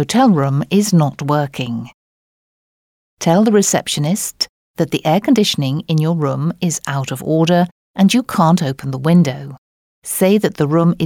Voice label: Speaking, Turkish